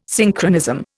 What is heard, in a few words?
"Synchronism", Indian